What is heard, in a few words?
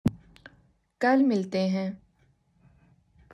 Urdu